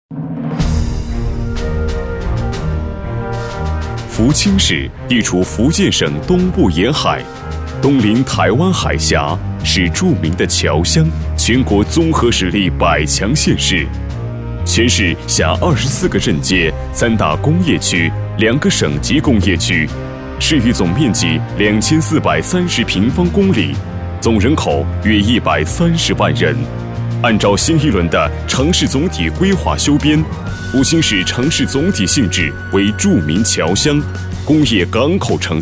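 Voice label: boy urban planning, Chinese; chengshiguihua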